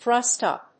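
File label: "thrust up", English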